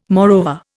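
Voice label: "moreover", Indian English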